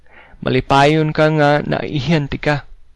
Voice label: meet, cebuano